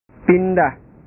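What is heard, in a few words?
"pindah", Indonesian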